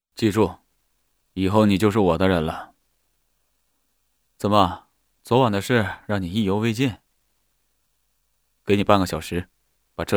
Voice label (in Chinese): 男, 角色, 霸道总裁; 林寒